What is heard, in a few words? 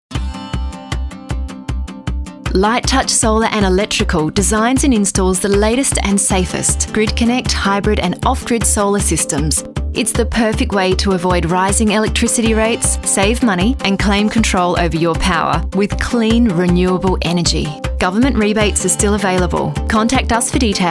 Promo Spot; Light Touch